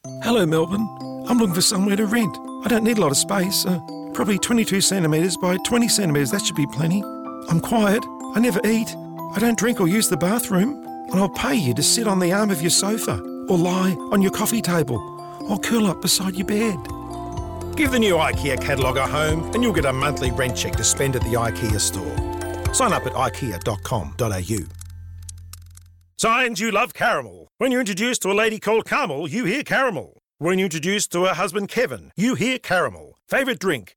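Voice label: English Australian, male; accent of australia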